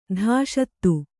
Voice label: "ಧಾಷತ್ತು", kannada

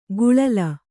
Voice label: "ಗುಳಲ", Kannada

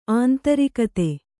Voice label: "ಅಂತರ್ಗತ", Kannada

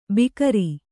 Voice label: "ಭಿಕಾರಿ", Kannada

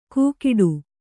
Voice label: "ಗೂಗಿಡು", kannada

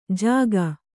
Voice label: "ಜಗಿ", Kannada

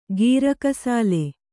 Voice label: "ಗೀರಕಸಾಲೆ", kannada

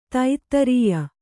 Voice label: "ಧಾತ್ರೇಯಿ", Kannada

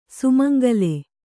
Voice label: "ಸುಮಂಗಲಿ", Kannada

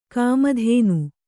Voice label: "ಕಾಮಧೇನು", Kannada

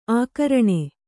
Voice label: "ಆಕರಣೆ", kannada

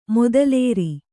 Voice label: "ಮೊದಲೇರಿ", Kannada

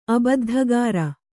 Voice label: "ಅಬದ್ಧಖೋರ", kannada

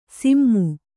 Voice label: "ಸಿಮ್ಮು", Kannada

